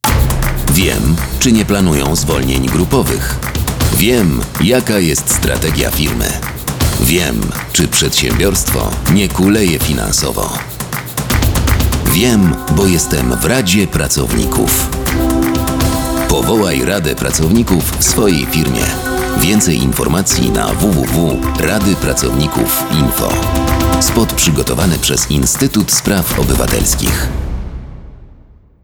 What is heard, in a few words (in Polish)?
spot; Rady Pracownikow Instytut Spraw Obywatelskich